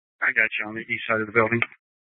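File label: police dispatch, scanner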